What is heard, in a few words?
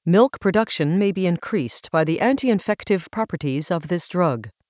english